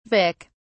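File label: "vic", British English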